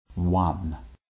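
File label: "one", English, RP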